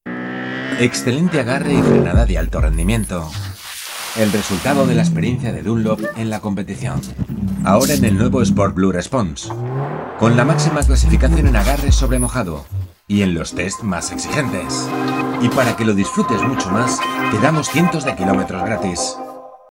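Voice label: automotive, Español, Hombre; Automoción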